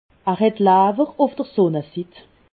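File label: "lawwer", Haut Rhin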